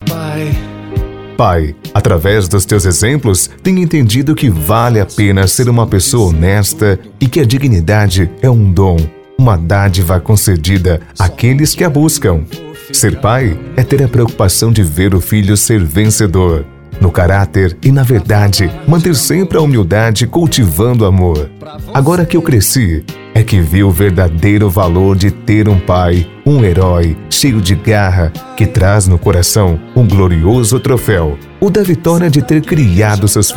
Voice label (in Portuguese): VOZ IMPACTO GRAVE mensagem para dia dos pais; homenagem de dia dos pais; mensagens